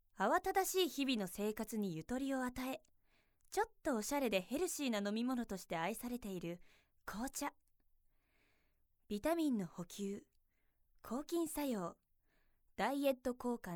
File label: narration